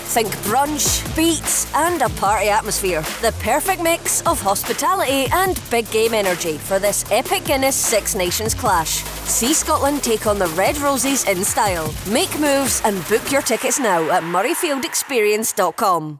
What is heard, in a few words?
scottish rugby radio ad